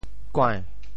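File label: "kuai~2", Teochew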